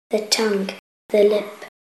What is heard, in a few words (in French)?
anglais lecon face